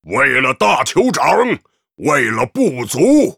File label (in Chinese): Hero tauren chieftain warcry, zh; 兽人